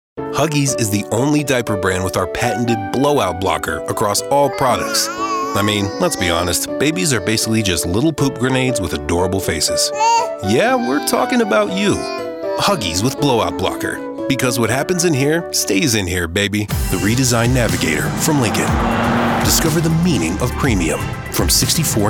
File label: Commercial; Denver, United States